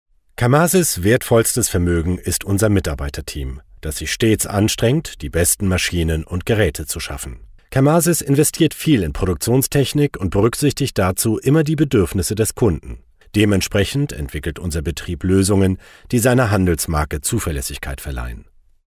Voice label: german voiceover locutor aleman, german male voiceover